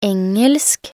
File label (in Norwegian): "engelsk", nb_NO